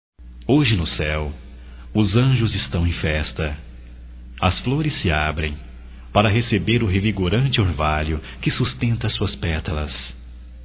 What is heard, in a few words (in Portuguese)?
HOMENAGEM, masc; Dia das Maes; Telemensagens 2017